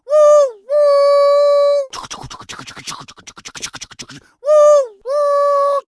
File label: Dwarf Male Choo Choo